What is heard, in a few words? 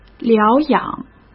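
"疗养", Mandarin Chinese; chinese flashcards; hsk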